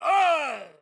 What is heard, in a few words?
Pain Death; Batman